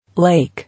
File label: "Lake", English